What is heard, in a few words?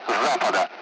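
Speech Sound Russian Common Allied Flight; DCS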